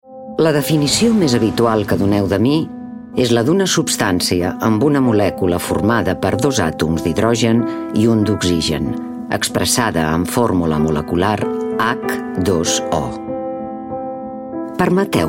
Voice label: female catalan comercial; ideasonora